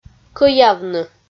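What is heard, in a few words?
"кӧявны", Komi, female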